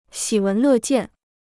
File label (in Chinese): "喜闻乐见", Chinese